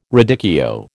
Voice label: "radicchio", American

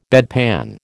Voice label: "bedpan", American

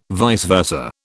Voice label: "vice versa", British

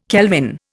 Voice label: "kelvin", Indian

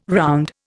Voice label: "round", Indian

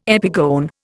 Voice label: "epigone", Indian

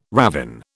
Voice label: "ravin", British

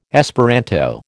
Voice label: "Esperanto", American

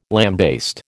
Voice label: "lambaste", American

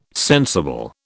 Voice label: "sensible", British

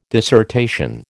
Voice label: "dissertation", American

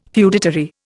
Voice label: "feudatory", Indian

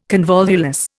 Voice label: "convolvulus", Indian

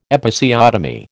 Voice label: "Episiotomy", American

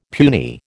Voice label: "puny", American

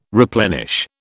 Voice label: "replenish", British

